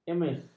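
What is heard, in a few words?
"emis", Malay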